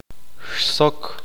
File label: "pulpa", Q'eqchi'